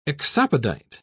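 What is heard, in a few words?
"exopodite", British English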